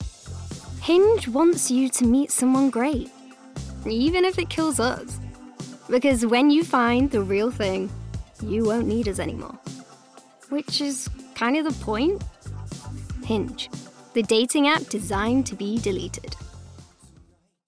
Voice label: Commercial; Hinge